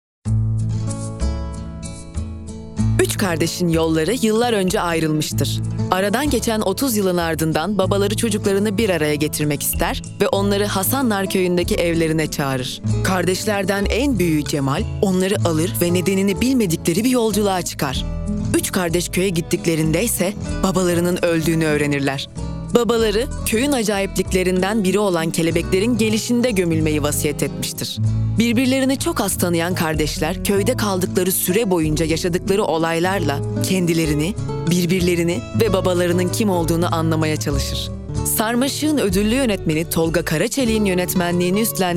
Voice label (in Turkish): tanitim